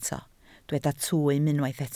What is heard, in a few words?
"twym", Welsh